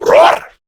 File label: Surprised, Male; Warframe; Grineer Male B; Battle Barks